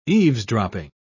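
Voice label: "eavesdropping", British English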